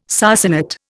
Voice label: "sarsenet", Indian